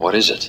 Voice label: what is it, male; questions